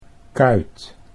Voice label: "kuit", Dutch